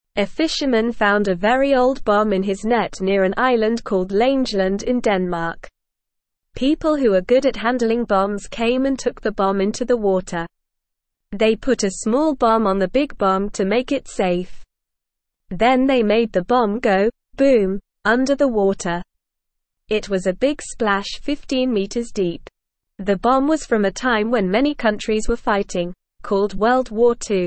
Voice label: English Newsroom Beginner NORMAL Reading; Old Bomb Found in Net Near Langeland Island

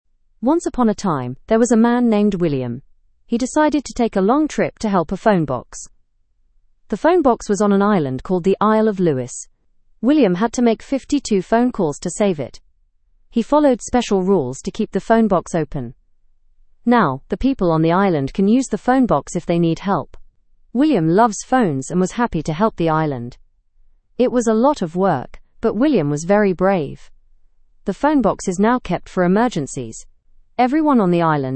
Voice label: english news beginner fast; man saves old phone box

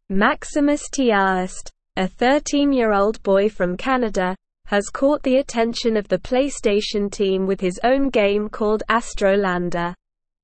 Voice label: English Upper Intermediate SLOW Reading; 13 Year Old Canadian Boy Develops PlayStation 5 Gam; English Newsroom

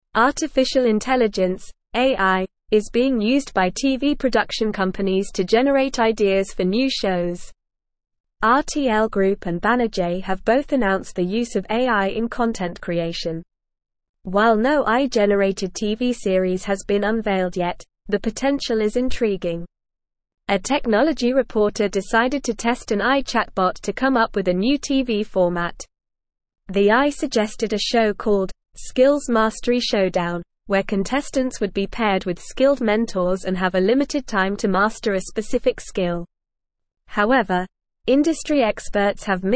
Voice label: English Advanced NORMAL Reading; AIs Role in TV Production Generating Ideas and Assisting Cr

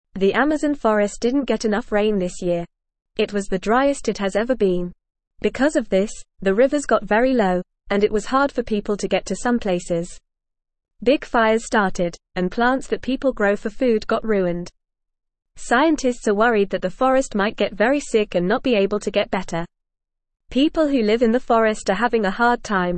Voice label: English Newsroom Beginner FAST Reading; Amazon Forest in Trouble Drought Fires and Concerns

